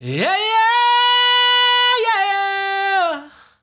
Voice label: ZULU MALE, DRY; Spectrasonics; Heart of Africa 2; S.MALEDRY